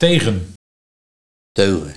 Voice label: "Tegen - Teugen", Nieuw lekkerlands dialect

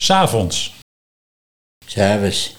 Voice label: "Saevus", Nieuw lekkerlands dialect; 's avonds

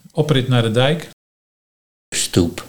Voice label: "Stoep", Nieuw Lekkerlands dialect

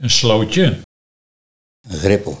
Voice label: "Slootje - Greppel", Nieuw lekkerlands dialect

